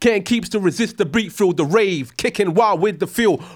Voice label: RAP PHRASE